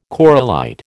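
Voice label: "corallite", American English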